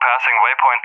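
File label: "passing waypoint", English speech; Allied Flight; DCS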